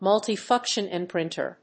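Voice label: "Multi-function printer", English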